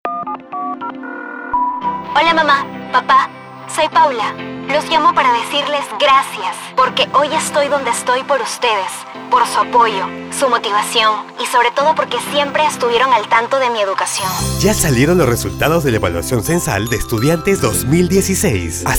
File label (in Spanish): llamada radio; MINEDU